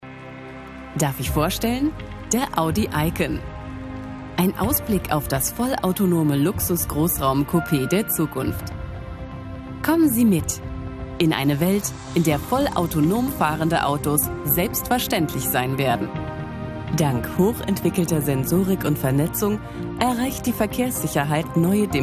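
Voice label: German, trade fair film; Audi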